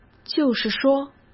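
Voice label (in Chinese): "就是说", Mandarin Chinese; chinese flashcards; hsk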